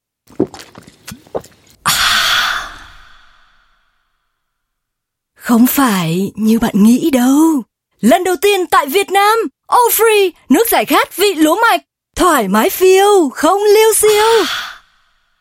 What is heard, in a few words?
Vietnamese F North